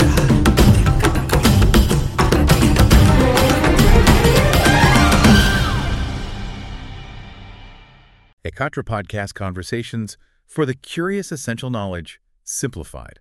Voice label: Audio the forest passage english